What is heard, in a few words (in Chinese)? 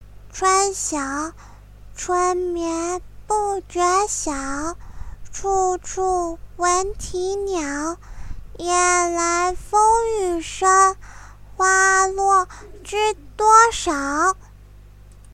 萝莉音; 春晓